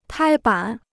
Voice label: "拍板", Chinese

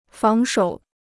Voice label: "防守", Chinese

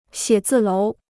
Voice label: "写字楼", Chinese

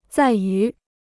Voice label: "在于", Chinese

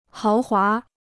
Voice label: "豪华", Chinese

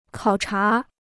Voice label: "考察", Chinese

